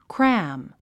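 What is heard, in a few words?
"cram", English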